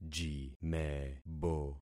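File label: "jimebo", concatenated syllables; Affixing experiment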